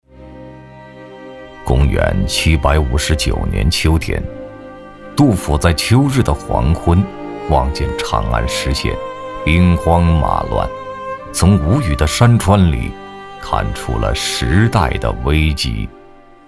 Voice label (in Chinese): 男100号-记录-纪录片 苍凉 磁性, 国语男声配音; 杜甫纪录片; 央视老师